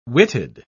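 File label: "witted", English, British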